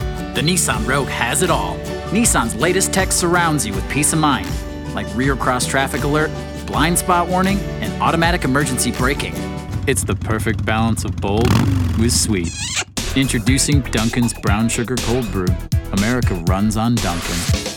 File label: comm VO